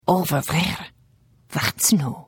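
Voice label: Idle, Khajiit female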